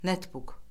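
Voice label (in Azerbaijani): "netbuk", Azerbaijani; Orfoqrafiya Lüğəti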